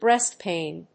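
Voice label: "Breast pain", English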